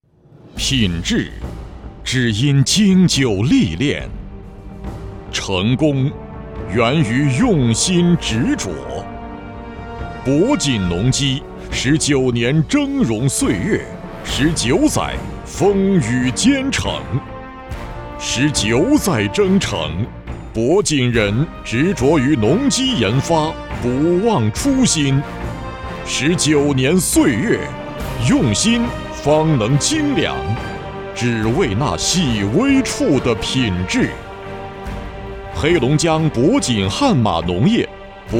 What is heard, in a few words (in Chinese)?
男 企业宣传片